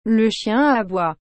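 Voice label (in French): Le chien aboie, French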